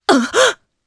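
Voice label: Vox Sur Japanese; Morrah; kingsraid; jp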